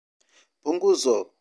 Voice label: "punguzo", Swahili